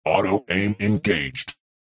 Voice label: cyborg speech auto aim engaged